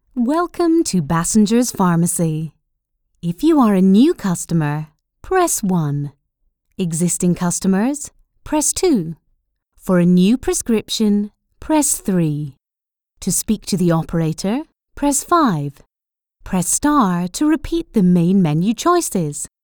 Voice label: IVR on hold Pharmacy